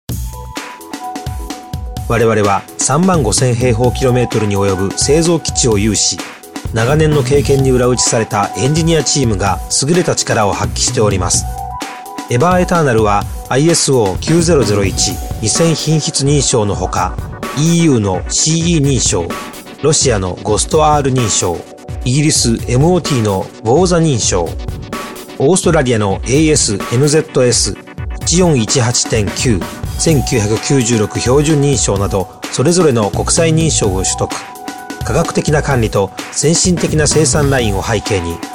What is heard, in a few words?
Japanese boy